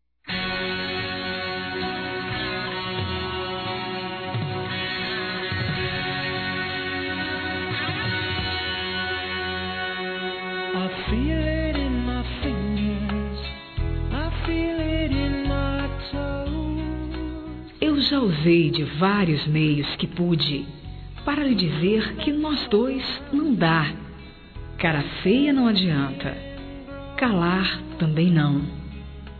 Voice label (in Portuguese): Larga do Meu Pe, fem